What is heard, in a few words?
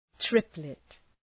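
"triplet", English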